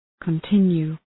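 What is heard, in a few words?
"continue", English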